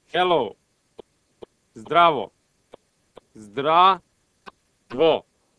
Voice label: Serbo Croatian Hello